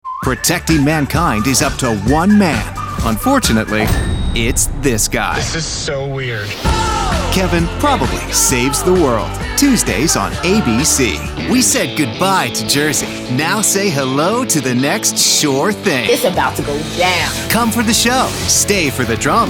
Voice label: PROMO

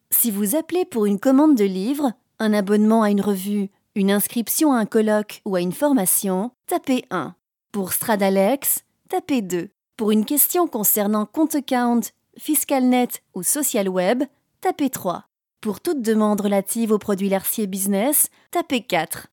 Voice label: French telephone IVR

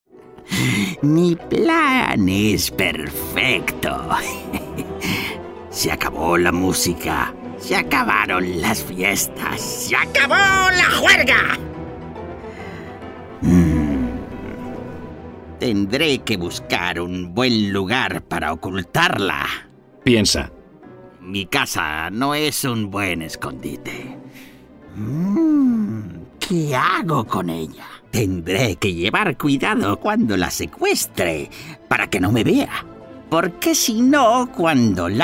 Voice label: Cartoon; Desacorde

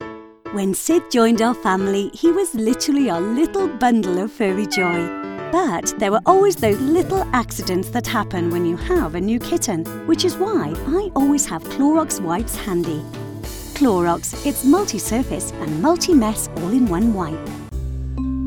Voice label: commercial

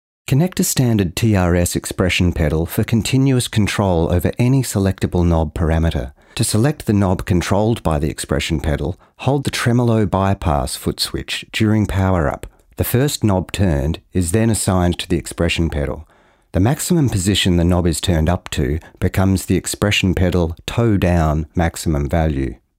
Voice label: E-LEARNING EXPLAINER

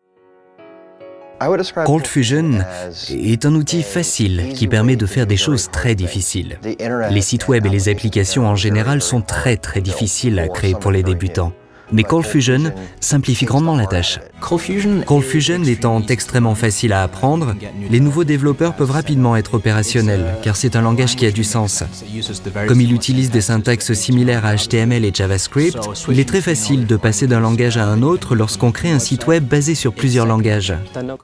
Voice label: ADR VOICE OVER; Adobe ColdFusion

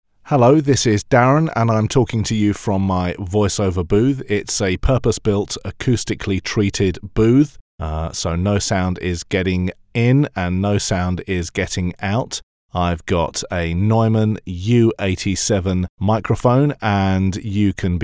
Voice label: studio

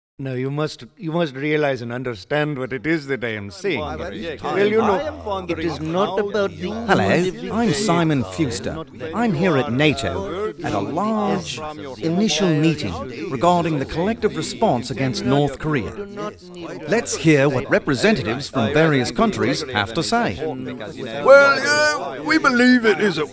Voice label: Accents Dialects

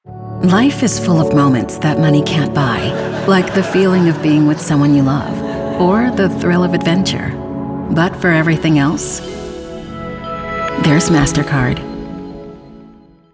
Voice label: Radio Commercials, Warm Reassuring, Female Voice; MASTECARD

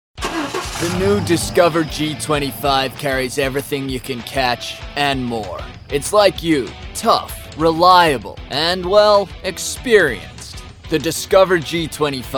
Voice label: Authoritative American

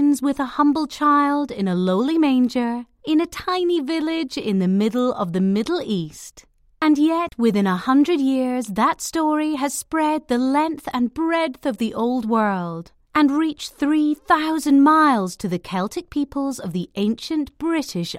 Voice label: Christmas announcer

